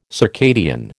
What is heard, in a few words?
"circadian", American